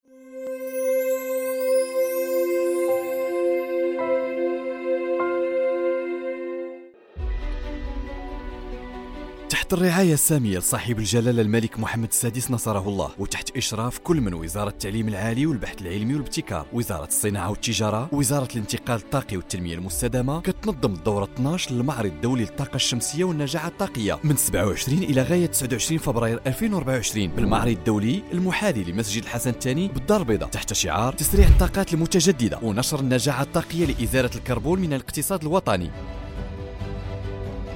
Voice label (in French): Darija, Voix Off; Solaire; Expomaroc 2024